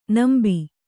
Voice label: "ನಂಬಿ", Kannada

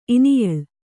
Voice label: "ಇನಿಯಳ್", Kannada

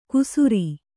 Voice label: "ಕುಸುರಿ", Kannada

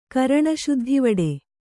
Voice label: "ಕರಣಶುದ್ಧಿವಡೆ", Kannada

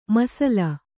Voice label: "ಮೀಸಲು", Kannada

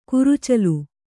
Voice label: "ಕುರುಚಲು", Kannada; kurucalu; low in growth; shrubby.

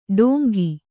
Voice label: "ಢೋಂಗಿ", Kannada

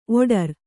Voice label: "ಓಡ್ರ", Kannada

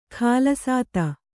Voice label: "ಕುಲಸ್ಥ", Kannada

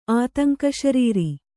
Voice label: "ಆತಂಕಶರೀರಿ", Kannada

